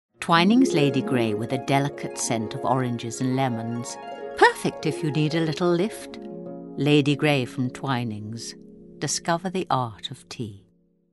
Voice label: commercial; twinings